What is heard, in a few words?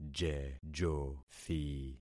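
"jejothi", concatenated syllables; Affixing experiment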